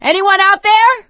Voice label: call for rescue, survivor voice